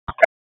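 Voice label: Raleigh Police Northeast District Tactical, p25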